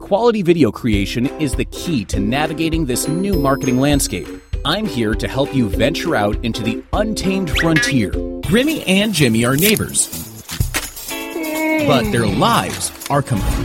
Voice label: Explainer